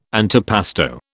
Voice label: "antipasto", British